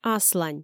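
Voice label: "аслань" Komi, female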